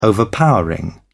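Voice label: "overpowering", British English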